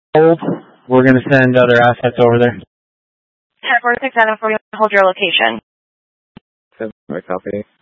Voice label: Mutual Aid-Intersystem Interop B, scanner